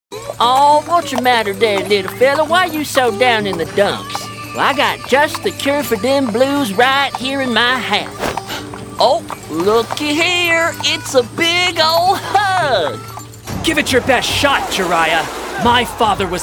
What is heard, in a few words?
Animation; Animation Demo